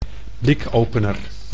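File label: "blikopener", Dutch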